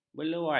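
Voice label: "belauan", Malay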